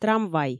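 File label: "трамвай", Komi, female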